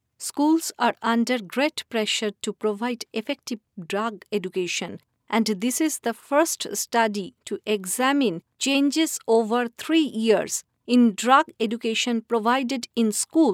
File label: female indian voice over narrative